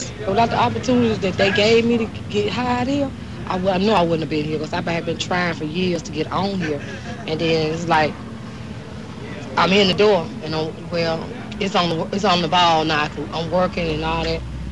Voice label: AAE (Alabama female), African American